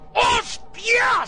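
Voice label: "hostias", Spanish; ElXokas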